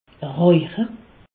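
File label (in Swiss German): "rauche", Alsatian; fumer; medecine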